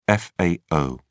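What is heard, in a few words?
"fao", British English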